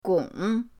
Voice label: "gong3", pinyin; pinyindct-open